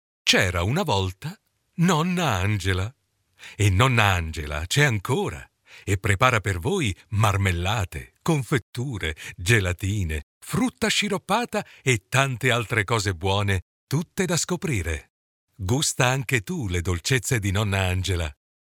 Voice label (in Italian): nonna